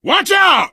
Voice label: lookout, survivor voice biker; Left 4 Dead 2